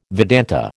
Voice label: "Vedanta", American

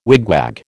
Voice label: "wigwag", American

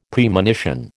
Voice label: "Premonition", American

